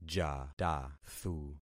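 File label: "jatathu", concatenated syllables; Affixing experiment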